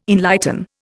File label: "enlighten", Indian